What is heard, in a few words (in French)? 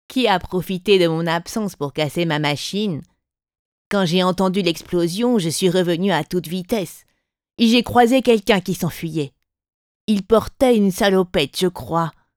Personnage Lutin